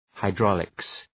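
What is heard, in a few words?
"hydraulics", English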